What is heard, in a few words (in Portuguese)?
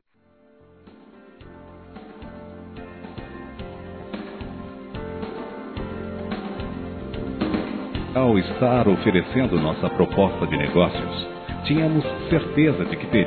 NEGOCIO FECHADO, Telemensagens, Empresarial; masc; Telemensagens 2017